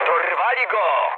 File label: aircraft destroyed mood med, speech pol; War Thunder